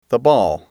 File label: "ball", English